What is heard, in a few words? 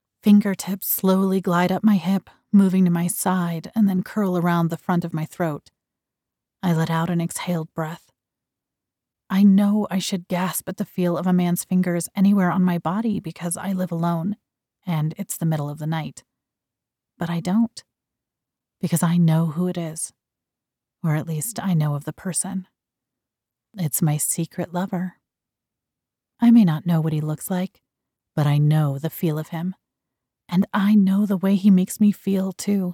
Erotica Female doing Male Voice